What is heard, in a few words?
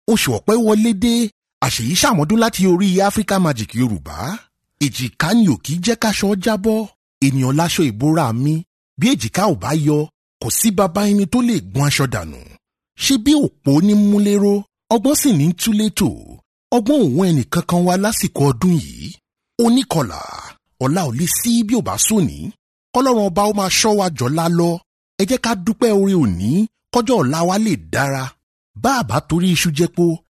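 Yoruba, male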